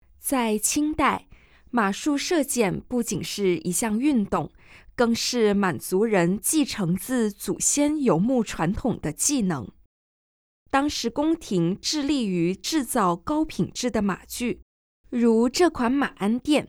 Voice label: Mandarin; audio guide; versailles